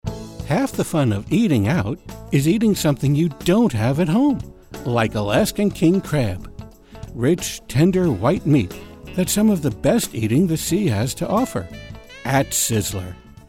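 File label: Commercial; Sizzler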